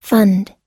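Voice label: "fund", American English; fund /fʌ́nd; 資金、基金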